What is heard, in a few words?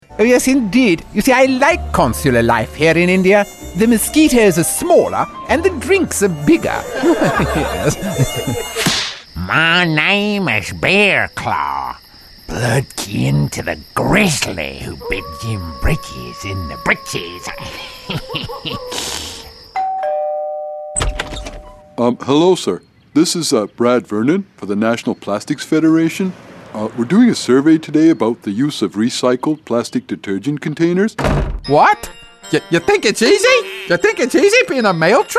Animation